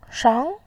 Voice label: "shang2", voice

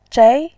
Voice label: "zhei2", voice

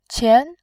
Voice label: "qian2", voice

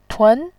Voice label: "tun2", voice

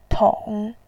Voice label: "tong3", voice

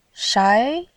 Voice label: "shai2", voice

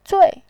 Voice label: "zui4", voice

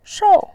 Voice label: "shou4", voice

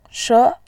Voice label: "she2", voice

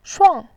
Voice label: "shuang4", voice

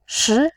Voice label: "shi2", voice

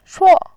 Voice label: "shuo4", voice

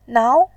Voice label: "nao2", voice

